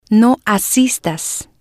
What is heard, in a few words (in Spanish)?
no asistas, Spanish